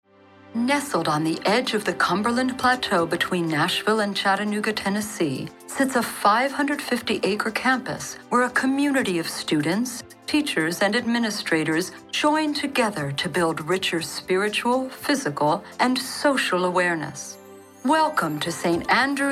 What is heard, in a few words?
Narrations